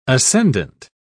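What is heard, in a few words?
"ascendent", British English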